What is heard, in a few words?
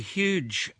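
huge, Australian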